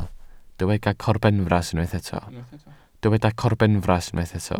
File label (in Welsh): "corbenfras" Welsh